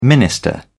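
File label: "minister", British English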